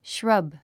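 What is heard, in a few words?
"shrub", English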